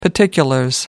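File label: "particulars", British English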